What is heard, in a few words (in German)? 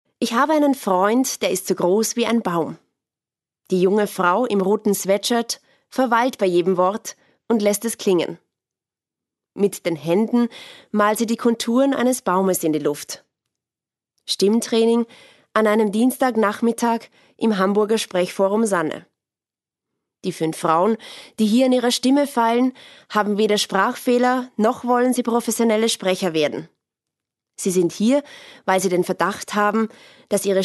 Kehlkopf, Sprecherdatenbank, Hörproben